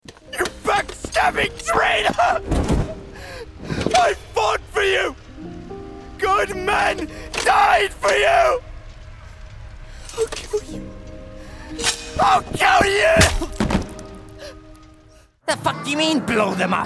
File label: Character